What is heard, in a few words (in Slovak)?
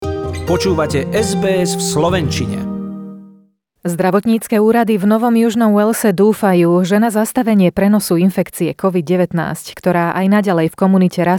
slovak; sbs